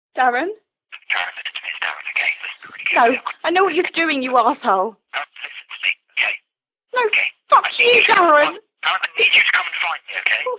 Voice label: phone call